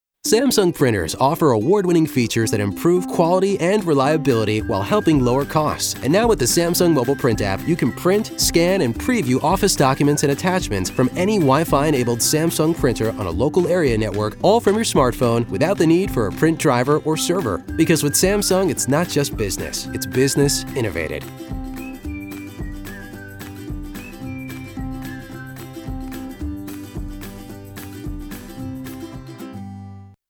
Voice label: Commercial; Samsung